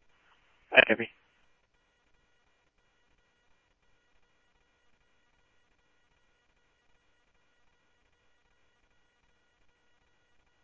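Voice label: Mesa Police Department, scanner audio trunk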